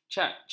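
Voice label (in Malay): "caj", Malay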